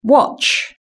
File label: "watch", British English